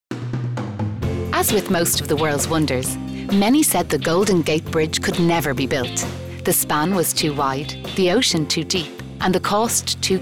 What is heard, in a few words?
Narration